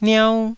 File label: "niau1", taiwanese